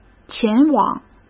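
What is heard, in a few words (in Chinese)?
"前往", Mandarin Chinese; hsk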